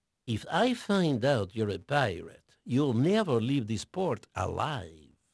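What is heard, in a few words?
Portuguese male, voice; Pirates Of The Caribbean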